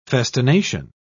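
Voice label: "festination", British English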